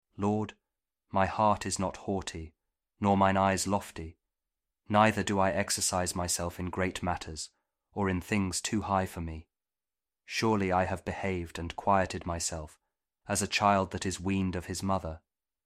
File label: psalm kjv king james audio bible